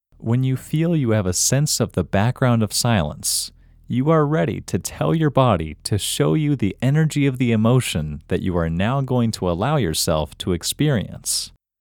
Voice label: Male